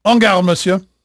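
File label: French male, voice; Pirates Of The Caribbean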